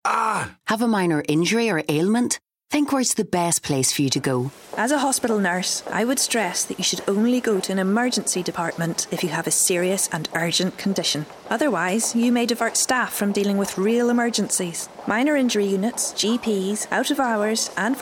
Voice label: radio advert; GP and emergency department